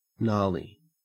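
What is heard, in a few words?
"gnarly", Australian English; pronunciations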